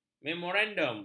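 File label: "memorandum", Malay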